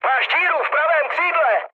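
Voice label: right wing, Czech, mood med; speech; War Thunder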